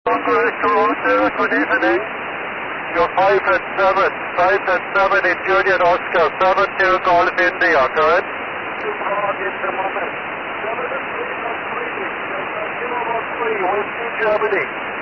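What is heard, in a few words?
SSB qso, 432 MHz, amateur radio; JO72GI 246; NAC qso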